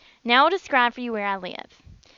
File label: southern